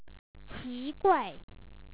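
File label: "qi2guai4", Chinese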